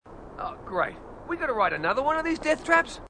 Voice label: marine, aussie; h2dialogue